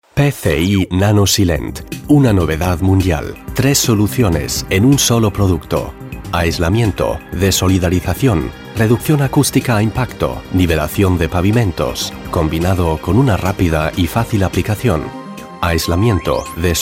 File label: Produktfilm; PCI